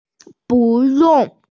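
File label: "bu yong", Mandarin Chinese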